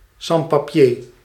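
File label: "sans-papiers", Dutch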